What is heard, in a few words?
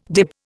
"dib", Indian